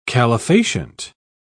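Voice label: "calefacient", English, British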